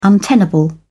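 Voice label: "untenable", British English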